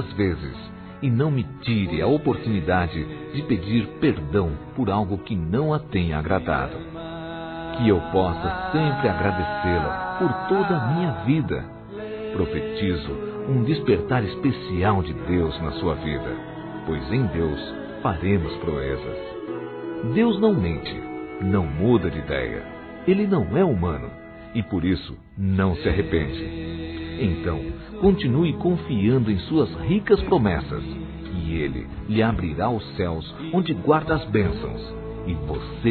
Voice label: ANIV. NEUTRO M, Telemensagens, Gospel, masc

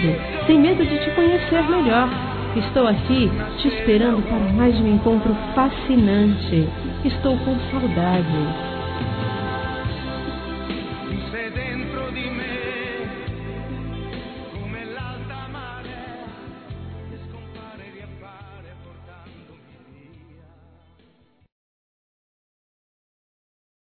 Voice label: Quem Fica Feminino; Telemensagens; Despedida Boas Vindas; Telemensagens 2017

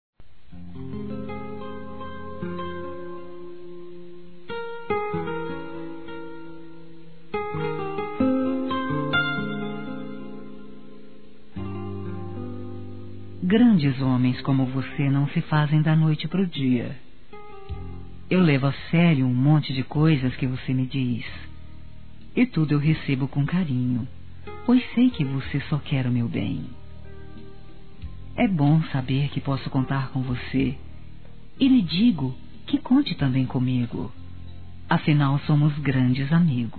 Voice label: Aniversário Tio Feminino; Aniversários; Aniv Familiar

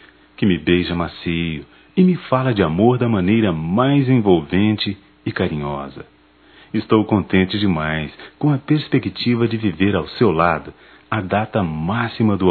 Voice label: Natal romantico, masc; Natal e ano novo; Telemensagens 2017

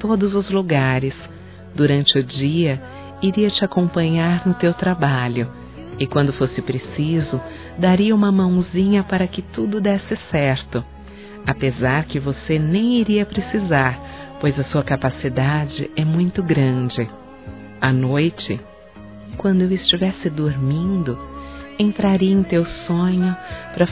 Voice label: ROM QUERIA SER UM ANJO, Românticas, fem

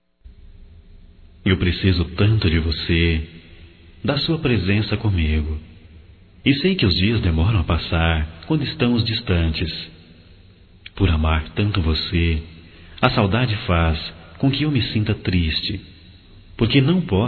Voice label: Amor a Distancia Masculino, Só Voz; Telemensagens; Telemensagens 2017

